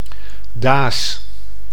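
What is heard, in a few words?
"daas", Dutch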